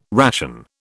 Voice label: "ration", British